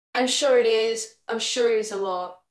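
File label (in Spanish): Voice Acting es F, Spanish, female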